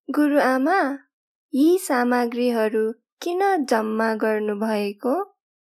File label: girl